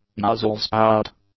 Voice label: "nozzle spout", English; nouns